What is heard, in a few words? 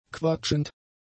"quatschend", German; adjectives